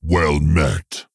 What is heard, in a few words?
Well Met, Maghar Orc Military Guard, M